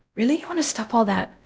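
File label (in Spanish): Voice Acting, es, female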